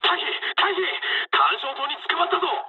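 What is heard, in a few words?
searchlite mood low, speech japanese; War Thunder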